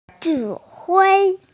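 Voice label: "command", female; zhi3hui1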